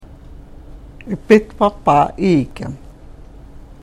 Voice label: karuk